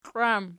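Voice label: "kliwam" nominative, Archi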